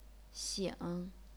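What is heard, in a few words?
"xing", chinese pinyin, third tone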